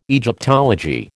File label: "Egyptology", American